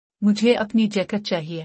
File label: I need my jacket. - Urdu; Dress and Appearance